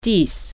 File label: "ten", French